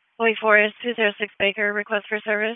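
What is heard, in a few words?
Wake Forest Police Dispatch, p25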